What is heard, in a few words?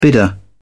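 "bidder", English, British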